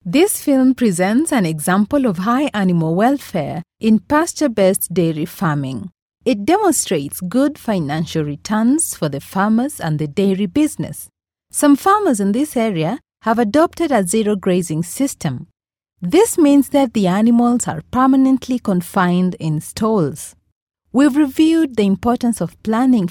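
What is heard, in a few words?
English African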